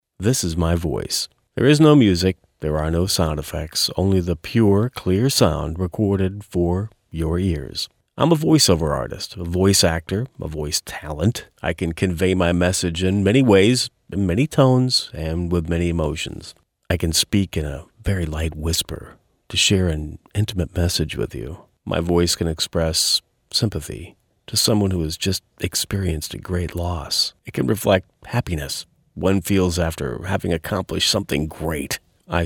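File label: natural dialogue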